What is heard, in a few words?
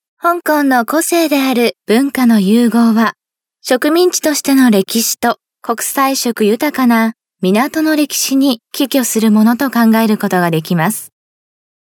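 Japanese female corporate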